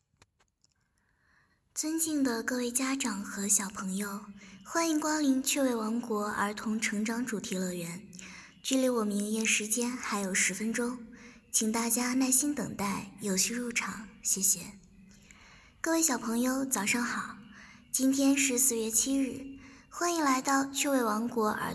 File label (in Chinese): 游乐园提示语